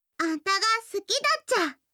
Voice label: 好きです 富山; やよい

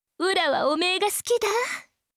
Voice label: 好きです 福井; 貴音

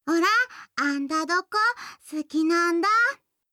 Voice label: 好きです 宮城; やよい

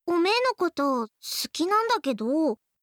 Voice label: 好きです 茨城; 春香

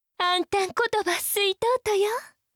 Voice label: 好きです, 熊本; 貴音

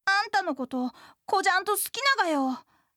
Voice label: 好きです 高知; 真

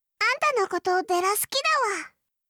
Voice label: 好きです 愛知; 伊織

